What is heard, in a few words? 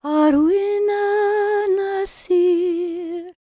WELSH F, World Voices; ILIO; Vocal Planet; WELSH FEM